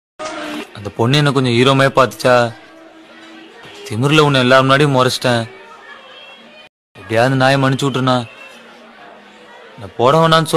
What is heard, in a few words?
movie dialogue in tamil; pudhupettai; dhanush